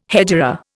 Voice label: "hegira", Indian

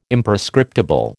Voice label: "Imprescriptible", American

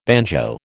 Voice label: "banjo", American

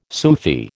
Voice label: "Sufi", American

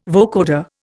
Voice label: "vocoder", Indian

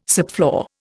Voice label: "subfloor", Indian

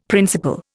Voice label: "principle", Indian; Dictionary

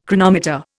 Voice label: "chronometer", Indian

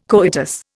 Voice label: "coitus", Indian

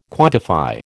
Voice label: "quantify", American

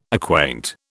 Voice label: "acquaint", British

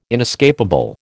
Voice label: "inescapable", American

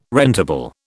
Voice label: "rentable", British

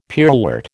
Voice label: "pearlwort", American

